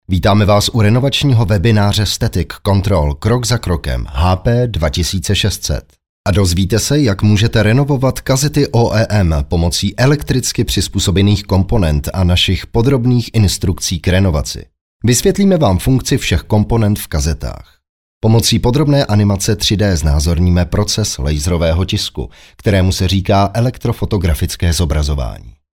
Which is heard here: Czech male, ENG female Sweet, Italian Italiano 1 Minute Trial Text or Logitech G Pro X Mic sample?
Czech male